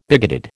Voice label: "bigoted", American